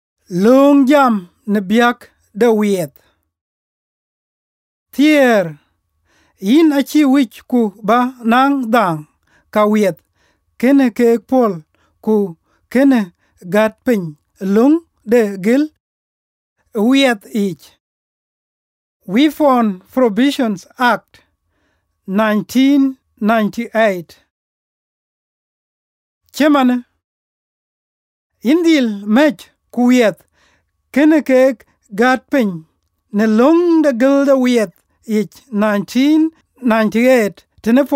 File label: dinka; orders about weapons